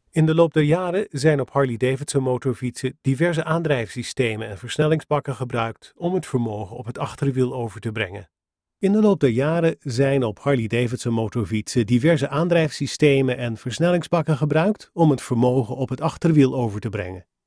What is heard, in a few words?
Microphone Comparison; Nethervoice